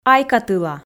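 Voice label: "айкатыла", Komi, female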